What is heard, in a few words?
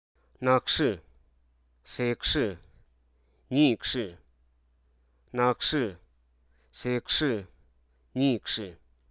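Hruso Aka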